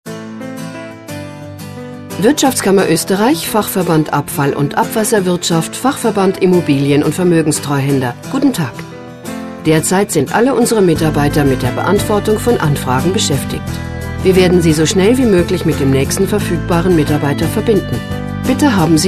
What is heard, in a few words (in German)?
ansage vor melden; wirtschaftskammer